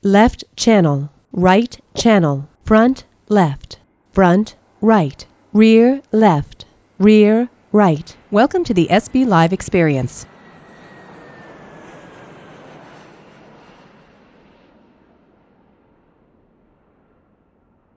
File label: female plane noise; Original Female Voice; Plane Noise 1 - 16 kHz Sampling Rate